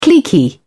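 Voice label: "cliquey", British English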